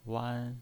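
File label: "wan", pinyin voice, first tone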